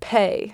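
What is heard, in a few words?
"pay", Native, American English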